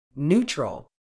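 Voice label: "neutral", British English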